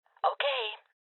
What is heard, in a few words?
Confirmation, Female Old; Voices Confirmation FemaleOld